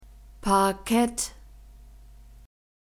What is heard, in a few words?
"Parkett", German